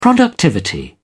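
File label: "productivity", English, British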